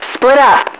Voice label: "split up", radio female

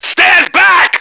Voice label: standback, radio, male

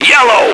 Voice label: yellow, radio, male

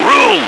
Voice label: room, radio, male

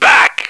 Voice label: back, radio, male

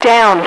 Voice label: "down", radio, female

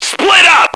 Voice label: split up, radio, male

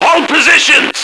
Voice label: holdpos, radio male

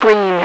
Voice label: "green", radio, female

